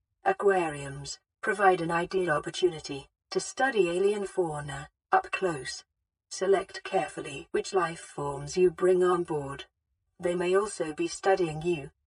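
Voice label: Alien Containment voice over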